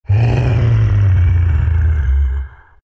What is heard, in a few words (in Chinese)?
anger, zh; Chaknight; Dota 2